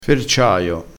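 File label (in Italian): "ferciaio", dialetto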